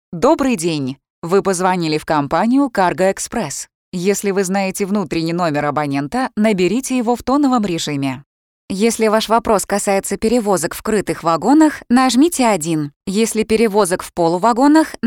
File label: ivr